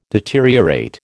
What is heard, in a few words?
"deteriorate", American